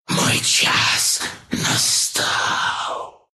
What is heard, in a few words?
death, ru; dota2